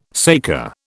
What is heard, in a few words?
"saker", British